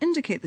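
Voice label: "indicaQ the", Northern Irish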